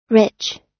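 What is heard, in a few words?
"rich", US English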